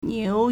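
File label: "niu2", Mandarin Chinese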